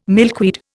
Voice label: "milkweed", Indian